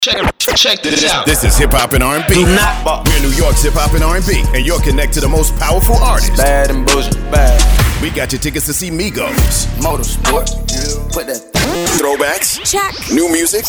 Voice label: Radio Imaging